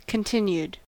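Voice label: "continued", US English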